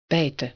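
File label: "bijten", Dutch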